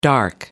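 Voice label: "dark", English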